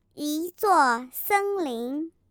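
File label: "forest", Mandarin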